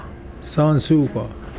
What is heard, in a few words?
leaf, Mokilese